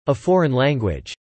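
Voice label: "a foreign language" spoken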